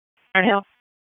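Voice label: Raleigh Police Southeast District Dispatch, p25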